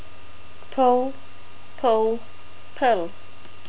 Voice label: "pull", NZ English

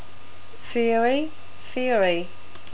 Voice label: "ferry", NZ English

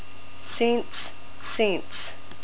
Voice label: "sense", NZ English

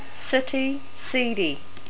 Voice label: "city", NZ English

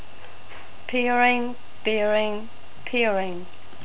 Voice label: "peering", NZ English

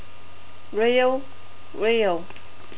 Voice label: "reel", NZ English

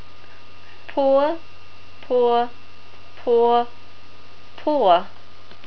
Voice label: "poor", NZ English

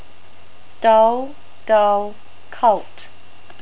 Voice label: "doll", NZ English

